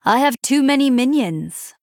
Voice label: Female Night Elf Error Full Minions